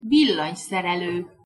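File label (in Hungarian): "villanyszerelo", Hungarian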